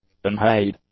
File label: "unharried", english; adjectives